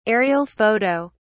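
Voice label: "aerial photo", British English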